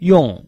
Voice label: "yong4", Chinese

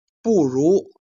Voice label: "jian", Chinese

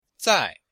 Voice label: "zai", Chinese

